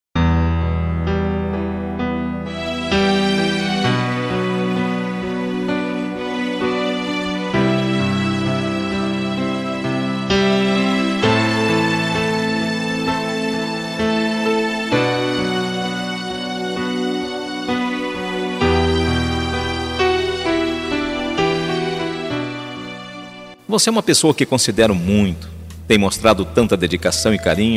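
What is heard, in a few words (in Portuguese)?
telemensagem, male; ANIV SOGRA MASC; aniversario sogra sogro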